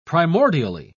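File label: "primordially", British English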